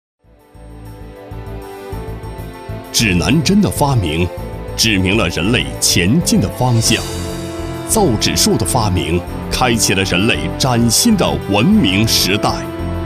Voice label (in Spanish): Locutor varón chino